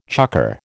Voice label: "chukker", American